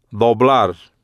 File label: "doblar", spanish